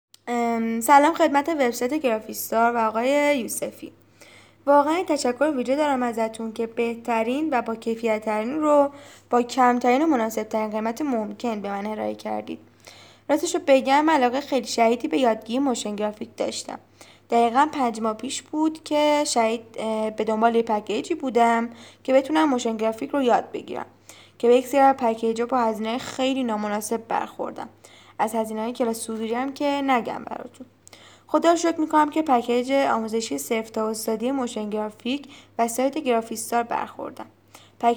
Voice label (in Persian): صدای مشتریان راضی